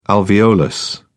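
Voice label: "alveolus", British English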